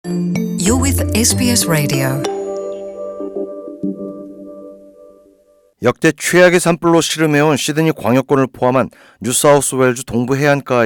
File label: english; 사상 최악의 산불에 이어진 역대급 집중 폭우...피해 급증; SBS Korean